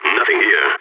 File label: cleared area, balkan; vo